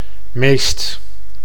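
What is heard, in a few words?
"meest", Dutch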